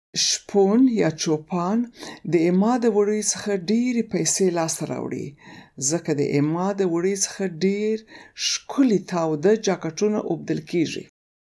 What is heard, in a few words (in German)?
paschtu